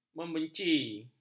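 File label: "membenci", Malay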